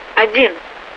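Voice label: Russian speech, Mi-8MT RI65; DCS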